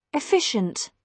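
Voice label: "efficient", British English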